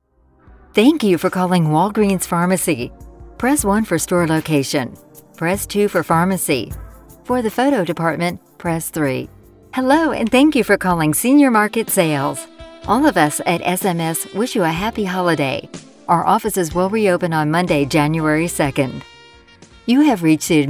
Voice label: Telephone

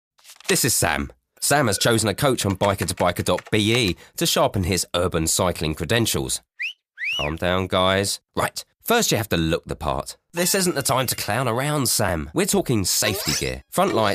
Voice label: Security UK

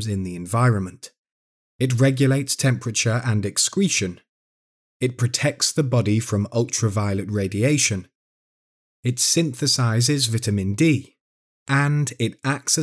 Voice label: Skin E-Learning

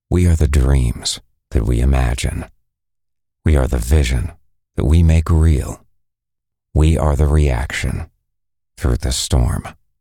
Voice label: US DEEP CAPTIVATING VOICE FOR TVC

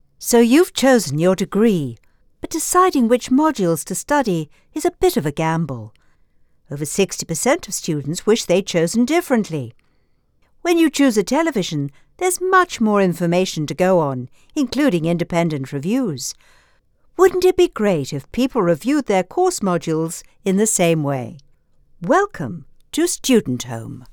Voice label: explainer video

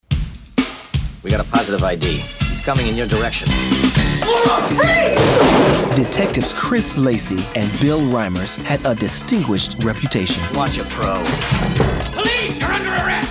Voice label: Trailer; Another Stakeout

